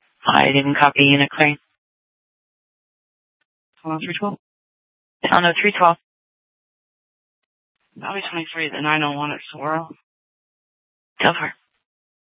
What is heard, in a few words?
Sheriff, scanner P25